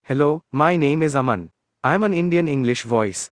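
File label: Indian English; iOS 26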